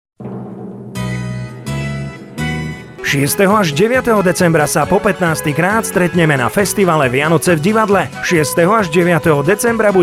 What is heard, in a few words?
radio; vianoce v divadle